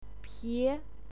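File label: "pie", Mandarin Chinese, second tone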